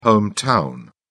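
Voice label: "hometown", British English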